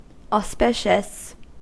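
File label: "auspicious", American English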